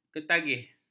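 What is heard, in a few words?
"ketagih", Malay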